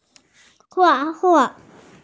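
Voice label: "drawing", Mandarin, hearing-impaired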